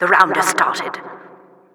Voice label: announcer tournament started